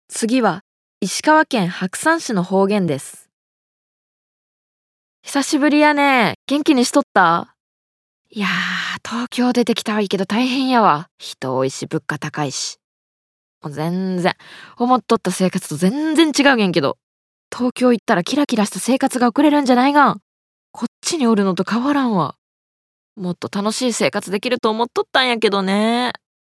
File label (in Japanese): 方言